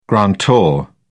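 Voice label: "grantor", English, British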